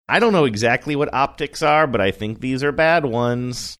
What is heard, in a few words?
saying i dont know exactly what optics are sing songy